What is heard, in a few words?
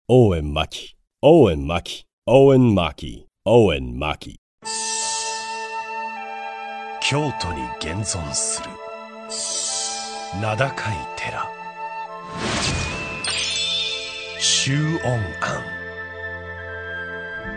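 male, English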